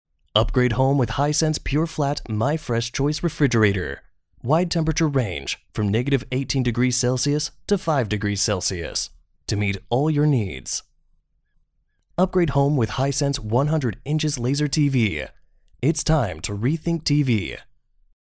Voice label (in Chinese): 轻松